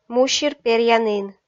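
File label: "мусир перъянін", Komi, female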